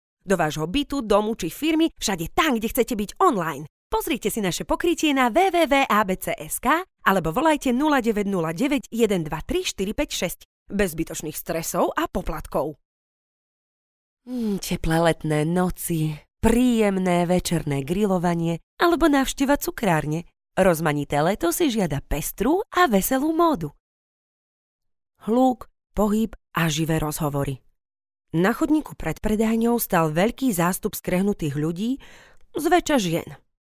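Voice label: Slovak, female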